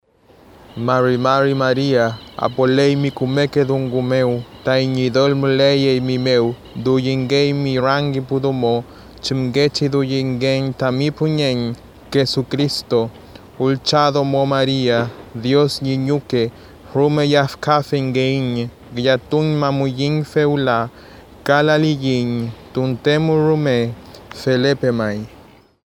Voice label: ave maria Mapuche normal

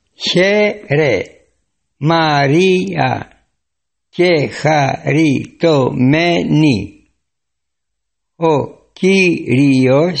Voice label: ave maria griego pausado; ave maria idiomas

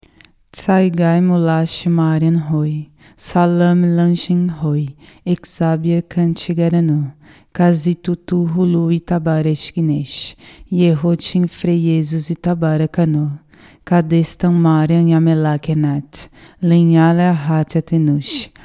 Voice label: ave maria amarico normal; ave maria idiomas

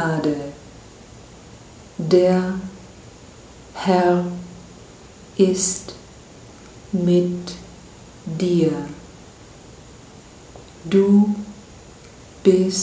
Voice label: ave maria aleman pausado; ave maria idiomas

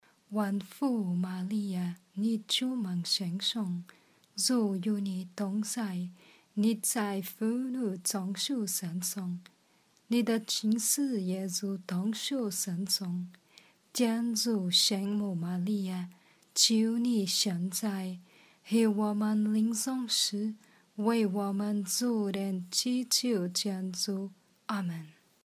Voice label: ave maria chino mandarim normal

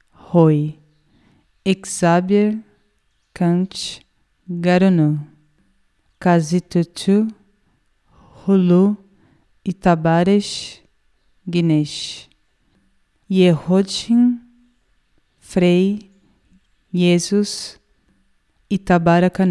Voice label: ave maria amarico pausado; ave maria idiomas

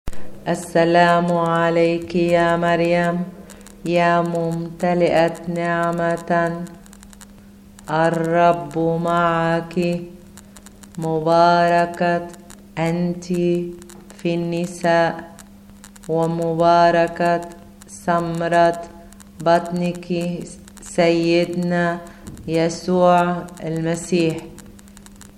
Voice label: ave maria arabe lento

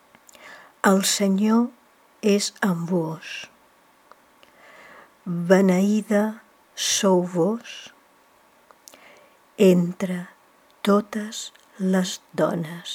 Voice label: ave maria catalan lento

